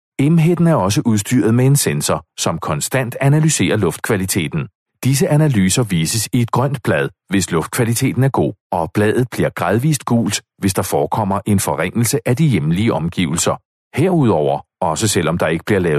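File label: Danish male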